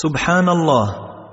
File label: Dua on Descending, Islamic Dua